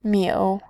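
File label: "miu", Mandarin, tone 3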